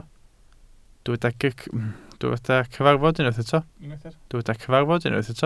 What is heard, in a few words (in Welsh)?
"cyfarfod" Welsh